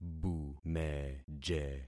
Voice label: "bumeje", concat syllables; Affixing experiment

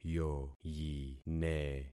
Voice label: "yoyine", concat syllables; Affixing experiment

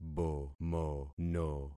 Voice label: "bomono", concat syllables; Affixing experiment

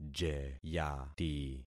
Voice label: "jeyati", concat syllables; Affixing experiment

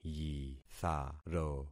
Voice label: "yitharo", concat syllables; Affixing experiment

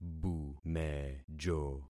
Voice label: "bumejo", concat syllables; Affixing experiment

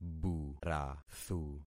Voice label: "burathu", concat syllables; Affixing experiment

